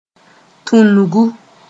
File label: "tũngu", moore